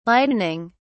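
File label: "lightening", English, British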